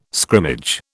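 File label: "scrimmage", British English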